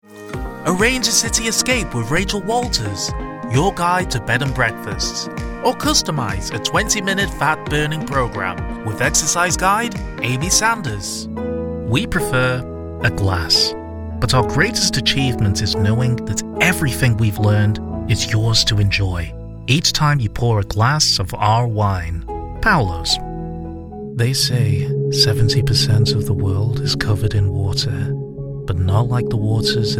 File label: Commercial